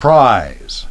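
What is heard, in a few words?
"prize", English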